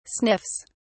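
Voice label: "sniffs", British English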